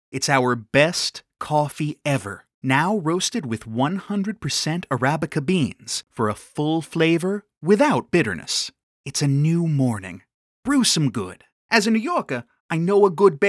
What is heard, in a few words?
Commercial dry